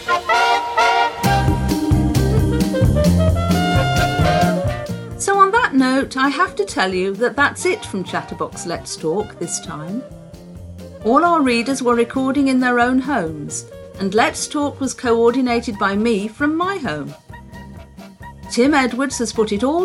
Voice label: Closing Announcement